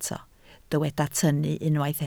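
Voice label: "tynnu" Welsh, spoken word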